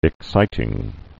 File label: "exciting", English